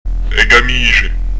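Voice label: "e camisge", Prononciation du corse; è gam'iƷè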